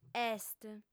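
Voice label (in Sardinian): "est", female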